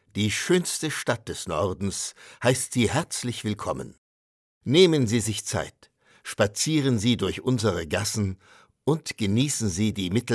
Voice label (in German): Audioguide